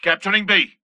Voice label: friendly Capping B; imc; blisk; Titanfall 2